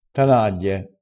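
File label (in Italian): "tanaglie", vocabolario dialettale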